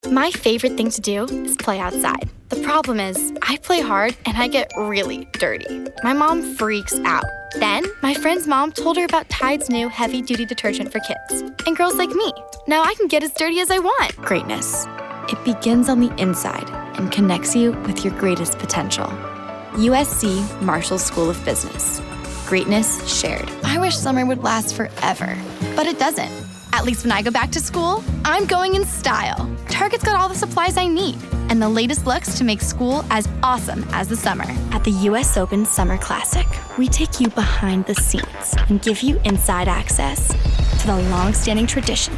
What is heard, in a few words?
Commercial